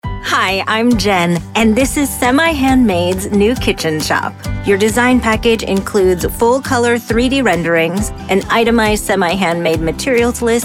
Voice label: corporate voiceover; Bright, Inviting, Instructional; Semi-Handmade